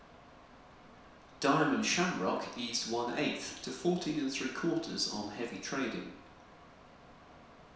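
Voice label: Sim Data room far male, reverberant environments; SimData (large-size meeting room - Room 3, far-condition)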